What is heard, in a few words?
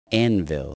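"anvil", spoken word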